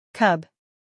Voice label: "cub", English